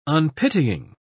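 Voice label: "unpitying", British English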